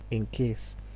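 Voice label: "incase", Nigerian Pidgin, male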